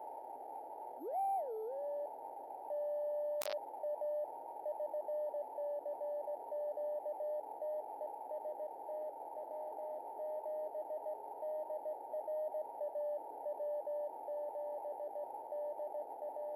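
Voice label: cq, 160m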